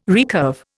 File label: "recurve", Indian